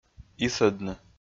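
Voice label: "исӧдны", Komi-Zyrian, male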